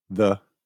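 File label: "the", British English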